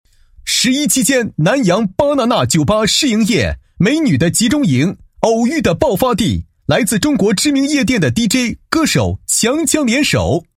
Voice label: 男 促销广告 酒吧试营业打折优惠宣传广告; 十一期间南阳芭娜娜酒吧试营业打折优惠宣传广告

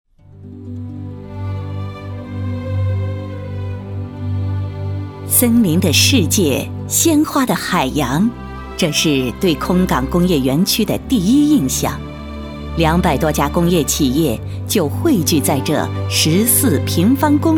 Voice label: 女 国语女声配音; 重庆渝北区

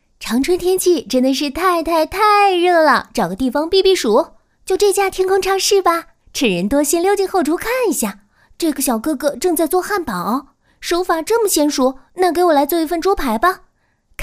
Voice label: 女-解说-可爱活力萝莉

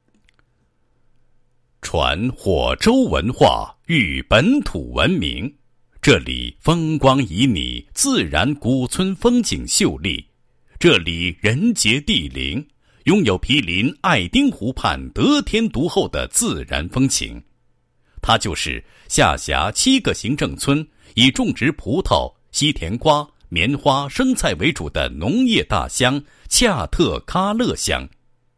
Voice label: 国语男声配音 党建; 恰特喀勒乡党建

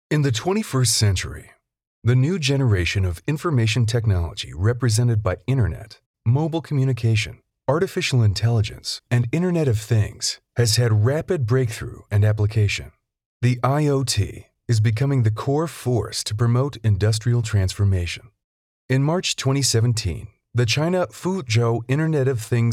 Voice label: 英文稿; 实验室

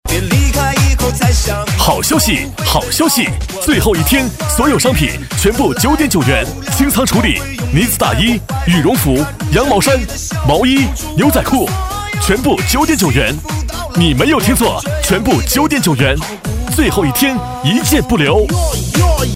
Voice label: 男 促销, 国语男声配音; 大衣促销; 交广主播

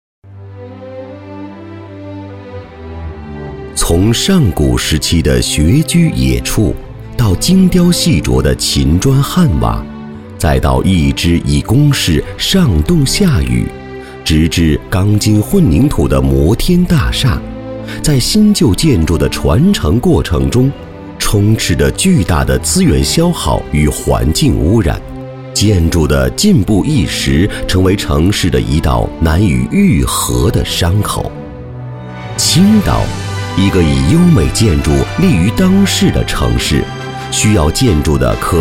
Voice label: 男, 国语男声配音 低沉磁性; 青岛

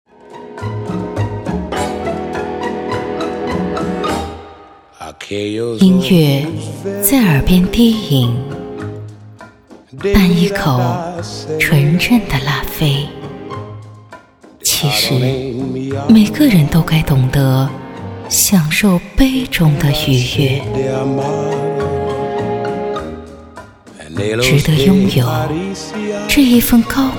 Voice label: 广告 红酒高端性感, 国语女声配音; 优雅; 拉菲

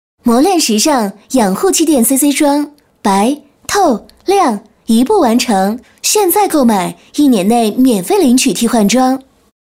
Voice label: 女 广告 甜美, 国语女声配音; 美白气垫CC霜

